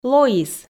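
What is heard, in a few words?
"лоис", Komi, female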